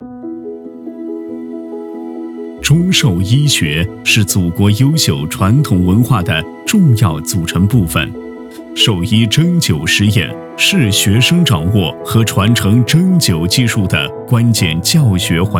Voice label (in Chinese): 男 学校宣传片 沉稳讲述; 男A1-成熟浑厚 大气浑厚; 中兽医学